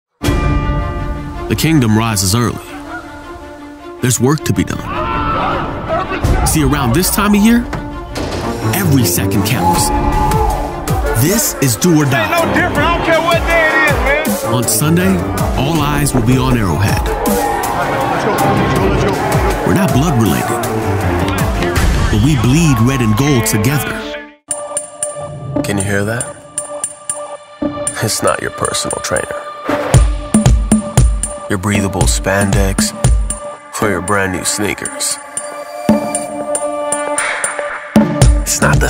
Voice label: american, english male voices american accent